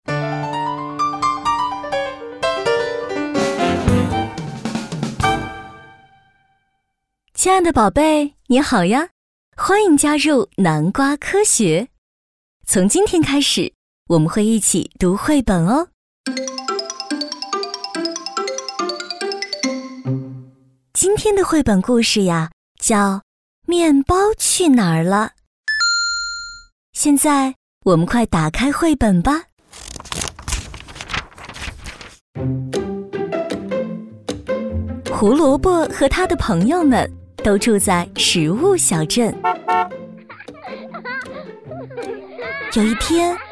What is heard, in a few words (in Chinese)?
女, 儿童绘本; 面包去哪了